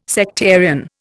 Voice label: "sectarian", Indian